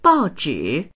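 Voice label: "bao zhi", Mandarin; 报纸; 新聞; dictionary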